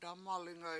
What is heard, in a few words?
"dAmalinga7", Washo; I heard it